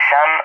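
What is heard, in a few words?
"SAM", English, Spain; DCS; Allied Flight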